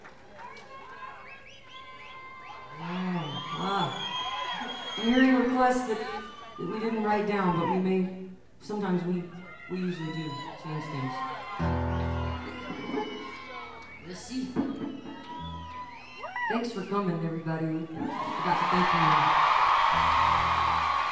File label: talking with crowd